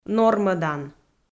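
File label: "нормӧдан", Komi-Zyrian, female; audio dict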